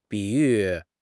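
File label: "比喻", Chinese; HSK6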